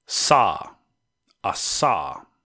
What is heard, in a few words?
Voiceless alveolar sibilant